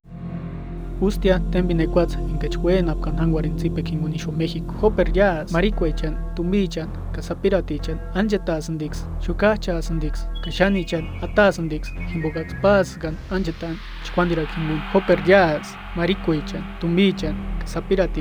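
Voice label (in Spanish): purepecha; Trata Laboral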